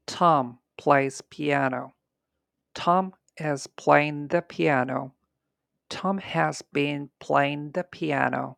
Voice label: piano non native